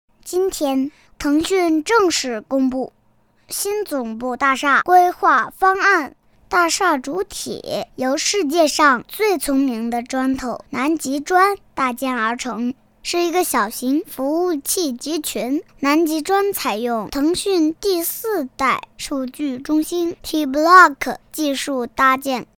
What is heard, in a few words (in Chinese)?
动画 童声 5岁真男童; 腾讯项目